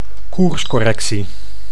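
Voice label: "koerscorrectie", Dutch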